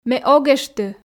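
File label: Komi, female; ме ог эшты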